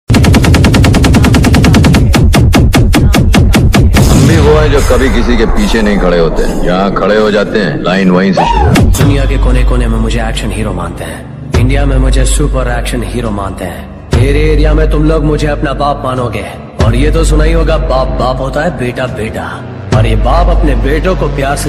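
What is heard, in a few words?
hindi Dialogue ringtone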